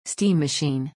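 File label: "steam machine", English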